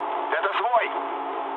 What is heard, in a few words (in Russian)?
player friendly fire, mood low, speech ru